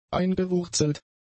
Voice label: "eingewurzelt", German; adjectives

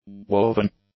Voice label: "woven", english; adjectives

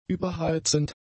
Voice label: "überheizend", German; adjectives

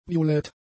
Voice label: "violett", German; adjectives

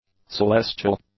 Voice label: "celestial", English; adjectives

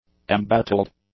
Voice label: "embattled", English; adjectives

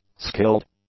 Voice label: "skilled", English; adjectives

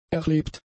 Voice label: "erlebt", German; adjectives

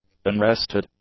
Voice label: "unarrested", English; adjectives

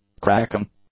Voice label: "cracking", English; nouns

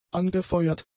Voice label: "angefeuert", German; adjectives

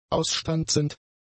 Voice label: "ausstanzend", German; adjectives

